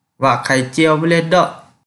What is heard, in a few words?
Kelantan-Pattani Malay